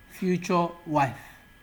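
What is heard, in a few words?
"future wife", Nigerian Pidgin, male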